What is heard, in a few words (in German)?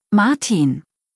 "Martin", deutsch, jungennamen